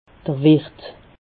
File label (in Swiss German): "wirt", Alsace